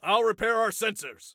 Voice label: Self Repair Sensors, Soldier Male; Soldier Male 02